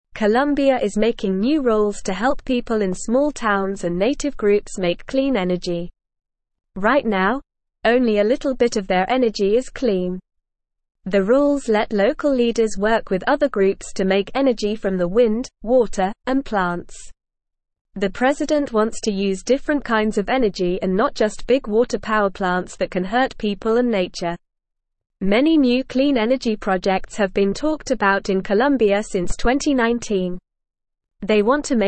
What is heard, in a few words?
English Lower Intermediate NORMAL Reading; Colombia Makes New Rules for Clean Energy; English Newsroom